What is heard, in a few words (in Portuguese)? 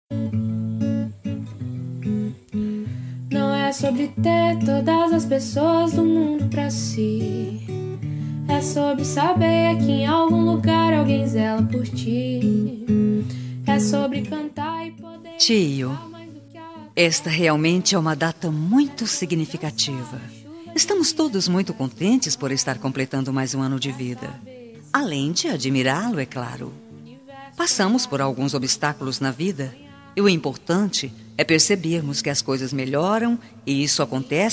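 birthday TIO female, telemensagem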